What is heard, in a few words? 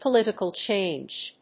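"Political Change", English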